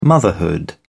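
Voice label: "motherhood", British English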